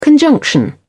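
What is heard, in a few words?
"conjunction", British English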